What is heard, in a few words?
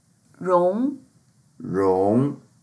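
"rong2", Chinese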